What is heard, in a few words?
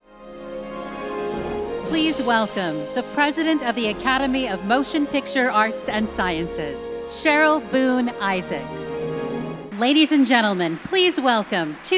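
Live Announce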